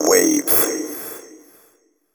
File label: techno male 140; Vocals